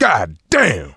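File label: reaction negative, male; survivor; coach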